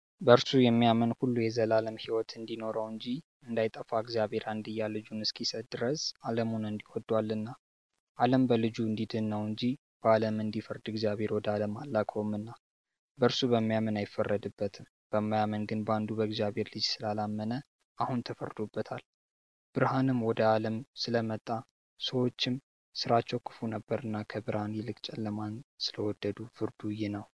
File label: male amharic voice over narrative